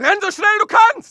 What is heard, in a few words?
fear, dialogue; mohaa